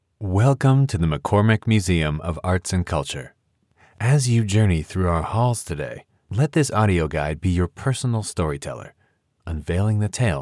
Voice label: Museum Audio Guide Welcome